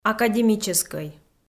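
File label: "академическӧй", Komi, female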